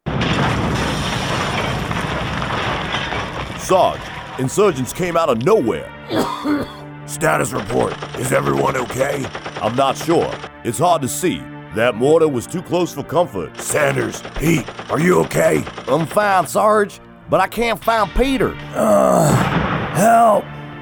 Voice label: Character Video Games